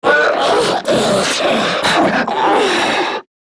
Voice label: Death Grineer Manic; Battle Barks